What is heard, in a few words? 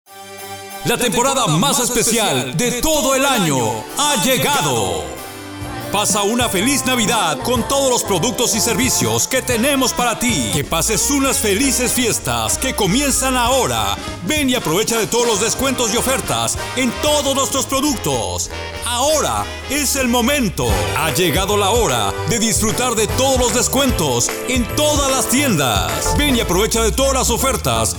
Spanish